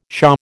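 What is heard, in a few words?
"pshaw", American English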